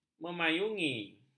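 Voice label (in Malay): "memayungi", Malay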